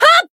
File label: attack, jp